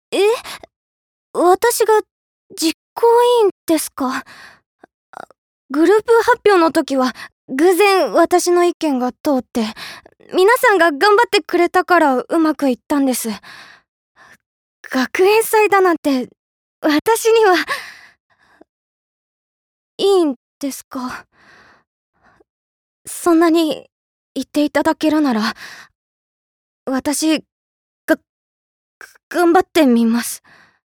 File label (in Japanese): Uchikina Josei